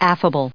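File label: "affable", US English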